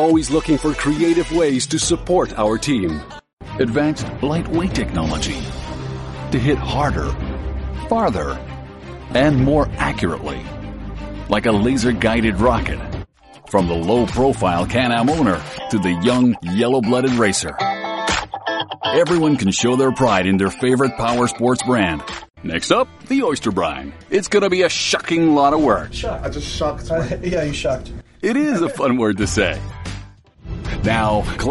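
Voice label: English Canadian, M, Corporate, accent of canada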